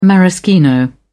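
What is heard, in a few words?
"maraschino", English, British English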